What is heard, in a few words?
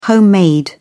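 "homemade", British English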